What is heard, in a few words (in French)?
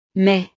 "mai", frances; los meses